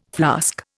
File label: "flask", Indian